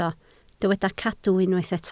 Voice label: "cadw", Welsh

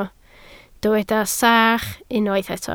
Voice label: "sach", Welsh

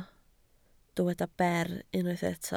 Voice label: "ber", Welsh

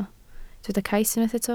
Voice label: "cais", Welsh

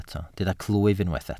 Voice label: "clwyf", Welsh

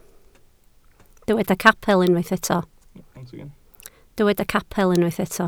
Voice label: "capel", Welsh

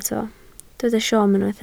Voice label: "siom", Welsh

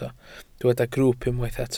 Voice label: "grwwp", Welsh

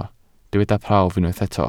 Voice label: "prawf", Welsh

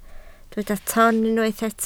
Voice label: "ton", Welsh

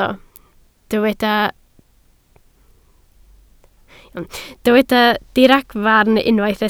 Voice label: "diragfarn", Welsh

